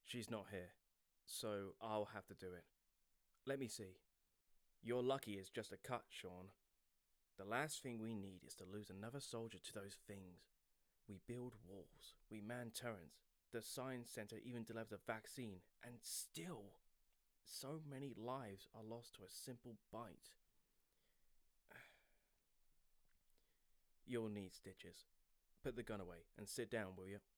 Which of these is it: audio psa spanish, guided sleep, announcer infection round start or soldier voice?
soldier voice